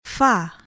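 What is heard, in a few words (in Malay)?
"fah", Malay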